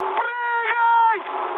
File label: bailout, mood high, speech ru; War Thunder